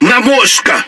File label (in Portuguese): Speech Hit Confirmation, PT BR; World Of Warships